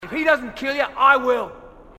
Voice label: marine aussie; h2dialogue